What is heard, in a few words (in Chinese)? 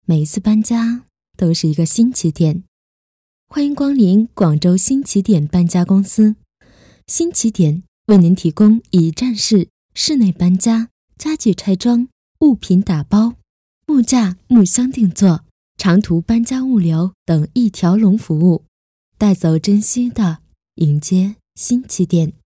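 广告优雅温馨搬家公司; 新起点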